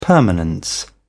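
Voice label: "permanence", British English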